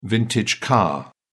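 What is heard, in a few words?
"vintage car", English, British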